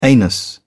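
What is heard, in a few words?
"anus", British English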